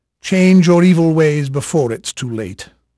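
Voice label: English male, voice; Pirates Of The Caribbean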